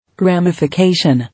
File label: "Ramification", English